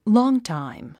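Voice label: "longtime", English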